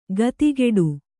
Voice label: "ಖಾತಿಗೆಡು", Kannada